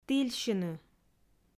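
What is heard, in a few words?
"тільсьыны", Komi, female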